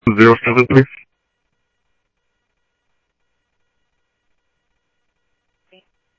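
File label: Simulcast police department, scanner audio trunk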